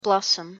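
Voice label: "blossom", English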